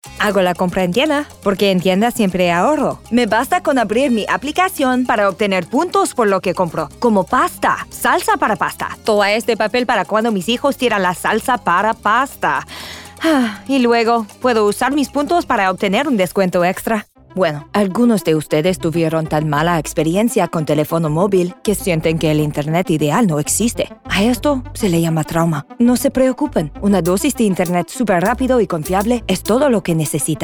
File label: Commercial Spanish